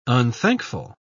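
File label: "unthankful", British English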